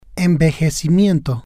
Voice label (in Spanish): "envejecimiento", Spanish